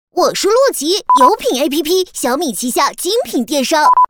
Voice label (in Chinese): 女 男童 广告